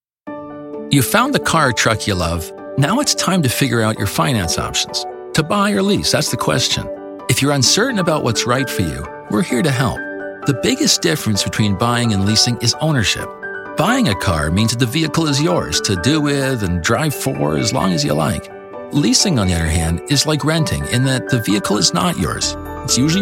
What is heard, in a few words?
english canadian narration, voice actors